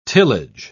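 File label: "tillage", British English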